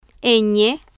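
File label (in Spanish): "ñ", spanish, female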